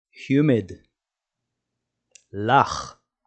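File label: "humid" English, "לח" Hebrew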